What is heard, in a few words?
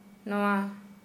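this, Santali, female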